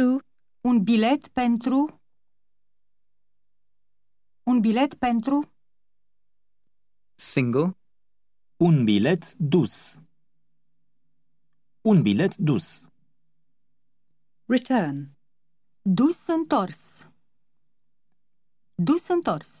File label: Romanian - Travel By Train; Berlitz Eastern European